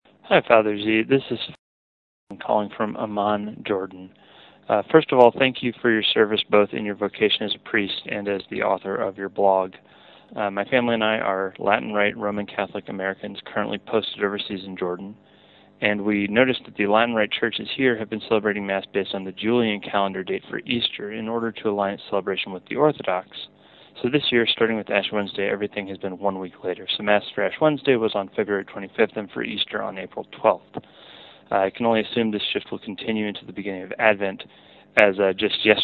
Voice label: voice mail